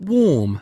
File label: "warm", English